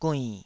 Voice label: "guinn3", taiwanese

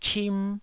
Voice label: "chim1", taiwanese

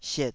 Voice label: "siet3", Taiwanese syllable

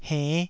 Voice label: "henn5", taiwanese

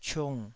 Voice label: "ciung3", taiwanese

